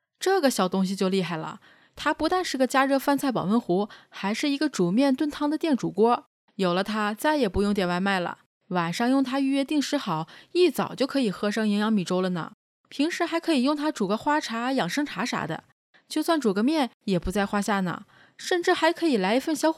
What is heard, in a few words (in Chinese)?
短视频配音